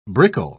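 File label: "brickle", English, British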